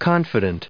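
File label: "confident", English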